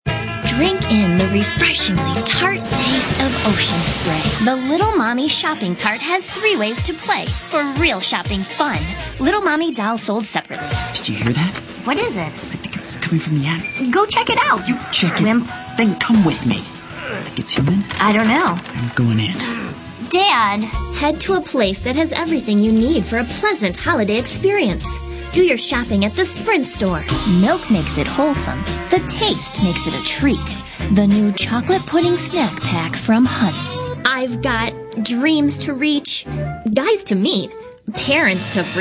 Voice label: female, natural character

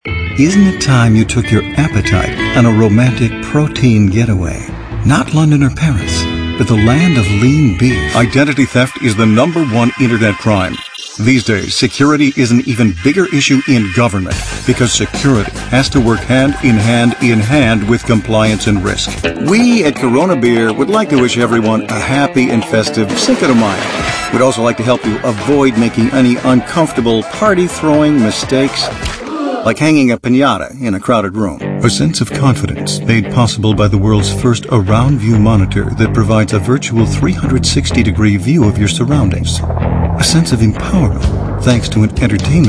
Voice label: male, mid30s mid40s warm

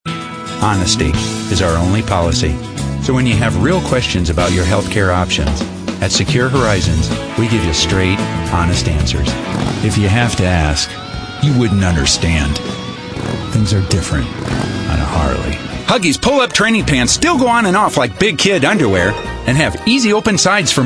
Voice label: male, mid30s mid40s warm

